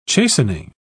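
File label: "chastening", British English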